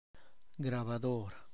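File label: Tlacochahuaya Zapotec; tape recorder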